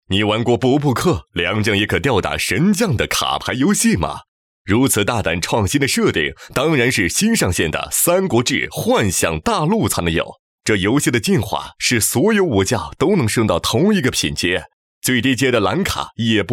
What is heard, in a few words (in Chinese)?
男 游戏宣传 角色